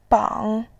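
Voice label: "bang", Mandarin Chinese, tone 3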